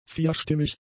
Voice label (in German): "vierstimmig", German; adjectives